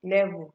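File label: "level", Nigerian Pidgin, female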